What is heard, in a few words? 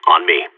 radio follow me; Source Model Packs; Counter Strike Model Pack; CS2; seal